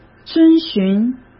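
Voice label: "遵循", Mandarin Chinese; chinese-flashcards; hsk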